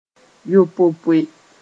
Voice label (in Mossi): "yopopoe", moore; by groups of seven